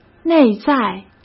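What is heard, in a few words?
"内在", Mandarin Chinese; hsk; chinese-flashcards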